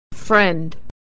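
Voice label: "friend", American English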